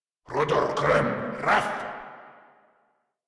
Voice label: Attack, Grineer Male